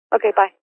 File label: Phone Okay Bye, Female, Telephone Voice; VoiceBox